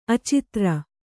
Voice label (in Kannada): "ಅಚಿತ್ರ", Kannada